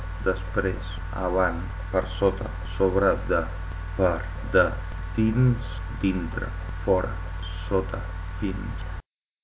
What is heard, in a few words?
catalan; words